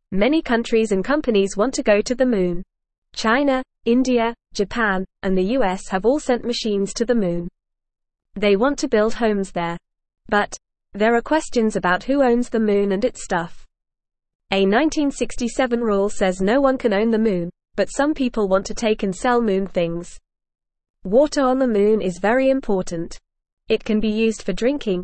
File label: English Beginner FAST Reading; Who Owns the Moon